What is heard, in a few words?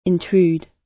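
"intrude", British; Crime and punishment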